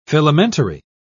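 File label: "filamentary", English, British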